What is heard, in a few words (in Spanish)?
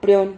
"prion", Silabas